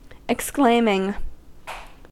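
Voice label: "exclaiming", American English; pronunciations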